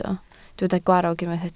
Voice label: "gwarrog", Welsh

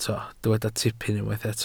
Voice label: "tipyn", Welsh

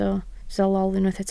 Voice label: "lol", Welsh

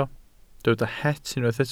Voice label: "het", Welsh

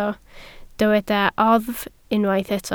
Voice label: "oddf", Welsh

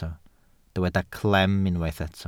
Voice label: "clem", Welsh

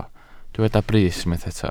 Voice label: "brys", Welsh

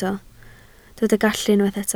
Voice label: "gallu", Welsh

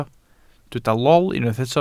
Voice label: "lol", Welsh

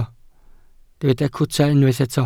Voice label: "cwta", Welsh

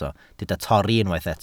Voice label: "torri", Welsh

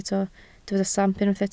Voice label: "stamp", Welsh

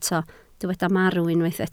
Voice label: "marw", Welsh

